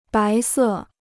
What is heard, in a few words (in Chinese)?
"白色", Chinese